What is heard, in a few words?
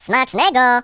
Melon, voices, pl; hedgewars; Default pl